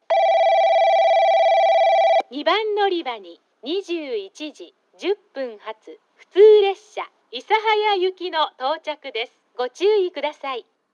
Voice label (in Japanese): 2番のりば接近放送; ハウステンボス; 2番のりば接近放送（普通 諫早行き）